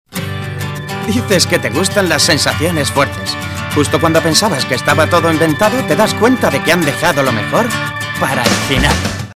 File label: SPANISH Male Adult; Ker Sound Studio Shanghai China